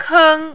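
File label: "keng", Mandarin, first tone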